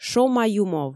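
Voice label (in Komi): "шома-юмов", Komi, female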